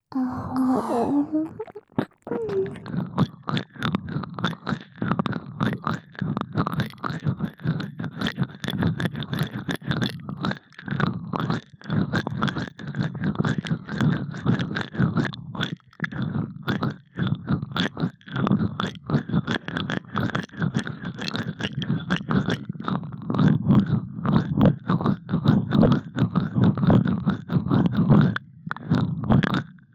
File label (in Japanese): たっぷり耳奥責め(両耳), ASMR